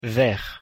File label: pronunciation fr "vert"